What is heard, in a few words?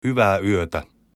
goodnight, Finnish